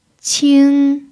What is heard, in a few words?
"qing1", pinyin